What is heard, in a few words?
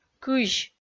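"кызь" Komi, female; kpv audio dict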